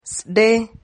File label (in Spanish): "zre", Silabas